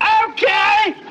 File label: "okay", expletives